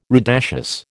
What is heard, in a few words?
"rudaceous", American